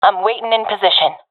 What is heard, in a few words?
in position, professional fem; vo; Counter Strike Model Pack; CS:GO